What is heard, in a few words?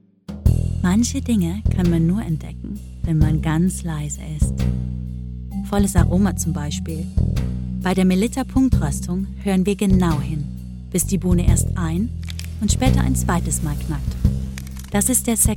German